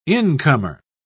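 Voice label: "incomer", British English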